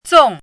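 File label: "zòng", Mandarin Chinese; 纵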